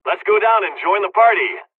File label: dialogs serbian; War Thunder